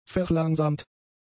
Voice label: "verlangsamt", German; adjectives